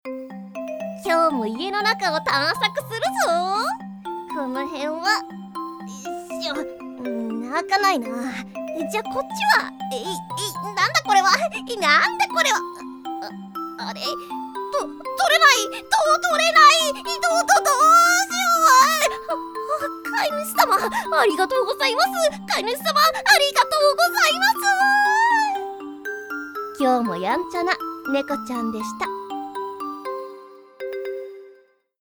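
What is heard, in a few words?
woman doubutsu bgm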